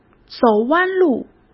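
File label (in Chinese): "走弯路", Mandarin Chinese; chinese-flashcards; hsk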